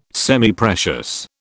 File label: "Semiprecious", British